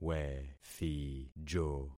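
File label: "wethijo", concatenated syllables; Affixing experiment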